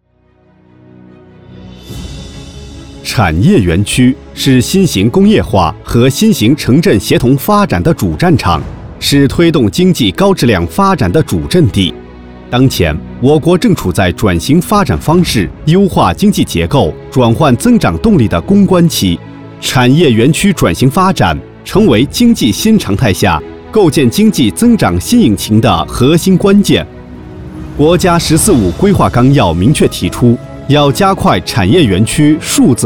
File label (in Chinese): C男 专题片; 长沙高新区